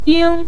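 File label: "ding" neutral tone, Mandarin Chinese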